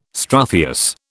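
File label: "struthious", British English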